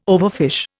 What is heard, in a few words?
"overfish", Indian